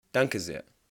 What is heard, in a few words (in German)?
"Danke sehr", German